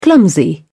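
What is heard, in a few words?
"clumsy", English, British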